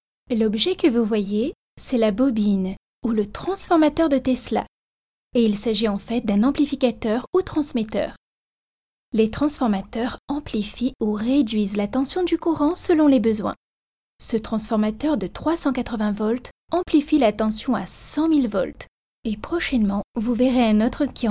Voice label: narration